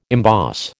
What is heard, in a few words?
"emboss", American